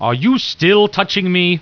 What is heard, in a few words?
annoyed; war2; humans; basic human voices